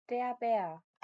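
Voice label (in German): "Bär", German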